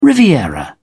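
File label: "riviera", British English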